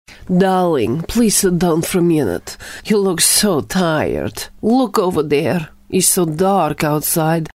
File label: eastern european